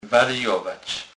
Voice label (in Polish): "waryjowac", kurpie